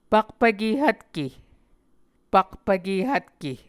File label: "pakpvke hvtke", Muscogee